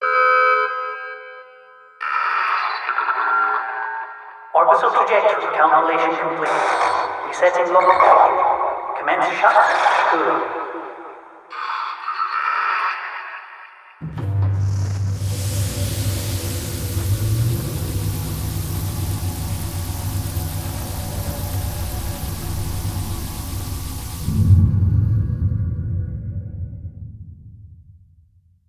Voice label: Announcement